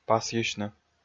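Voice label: "пасйысьны", Komi, male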